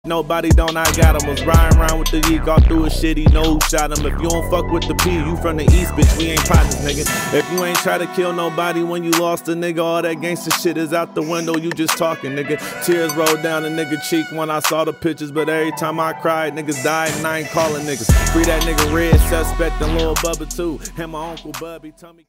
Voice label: Sad Story